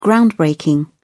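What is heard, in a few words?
"groundbreaking", British English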